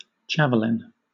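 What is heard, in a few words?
javelin British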